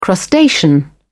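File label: "crustacean", British English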